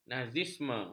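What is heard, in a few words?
"nazisme", Malay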